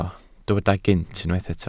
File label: "gynt", Welsh